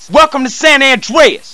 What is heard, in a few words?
Cheer; CarlJohnson